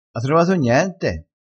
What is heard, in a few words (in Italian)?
"cosellina", dialettale